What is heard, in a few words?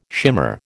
"shimmer", American